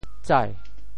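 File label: "tsai2", Teochew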